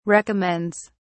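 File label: "recommends", British English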